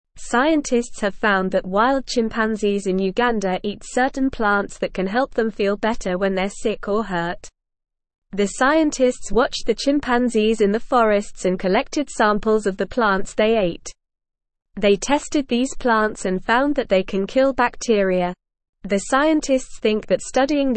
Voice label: English NORMAL Reading; Wild Chimpanzees in Uganda Use Plants with Medici